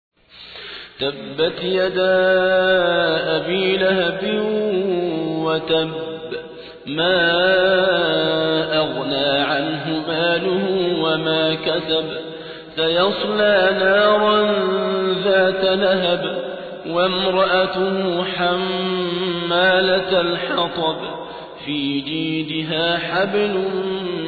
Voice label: ترتیل سوره مسد; صابر عبدالحکم

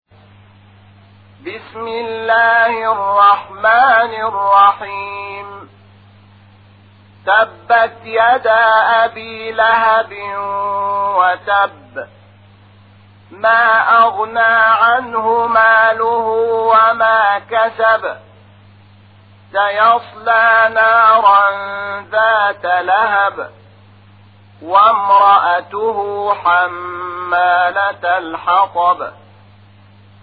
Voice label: ترتیل قرآن کریم سوره مسد; محمود شحات انور

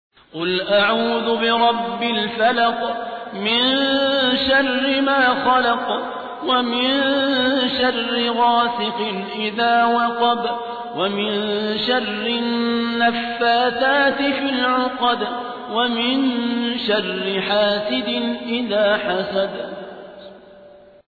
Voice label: ترتیل سوره فلق

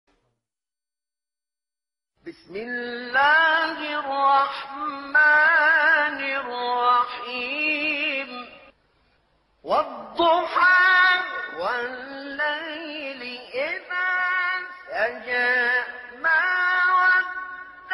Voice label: تلاوت مجلسی سوره مبارکه ضحی; دکتر احمد احمد نعینع

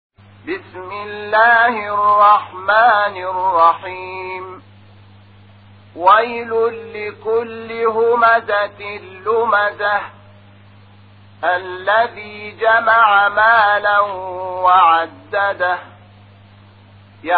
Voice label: ترتیل قرآن کریم سوره همزه; محمود شحات انور

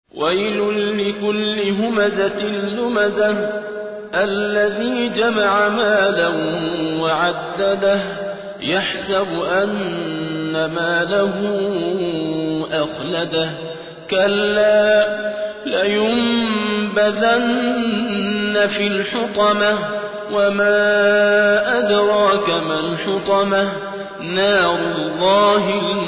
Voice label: ترتیل سوره همزه

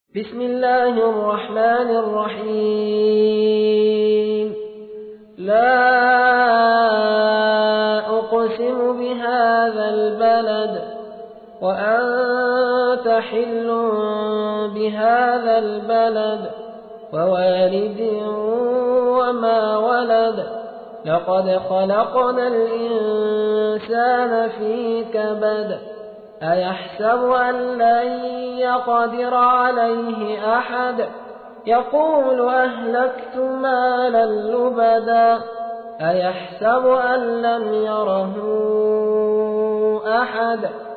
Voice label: ترتیل; سوره بلد

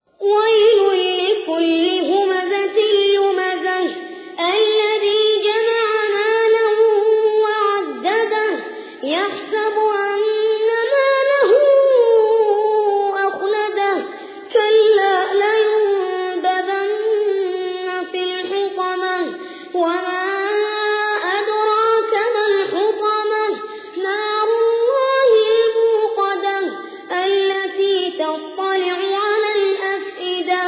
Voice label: ترتیل سوره همزه; محمد البراک

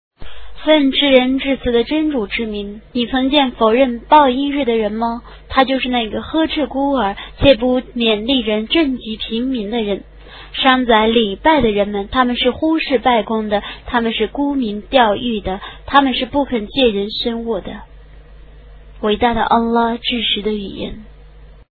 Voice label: ترجمه گویای قرآن کریم به زبان چینی; سوره ماعون

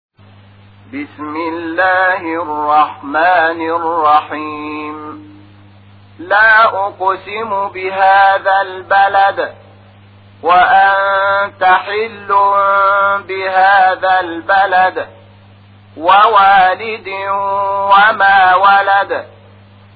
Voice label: ترتیل قرآن کریم سوره بلد; محمود شحات انور

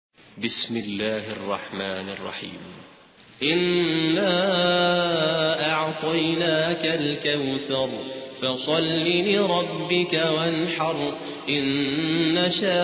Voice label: ترتیل قاری سودانی; سوره کوثر

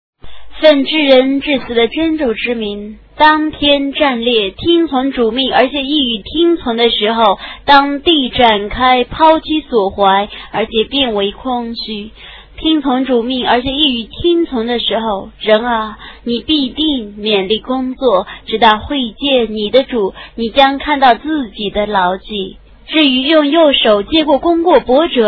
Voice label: ترجمه گویای قرآن کریم به زبان چینی; سوره انشقاق

